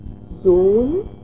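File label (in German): "sohn", German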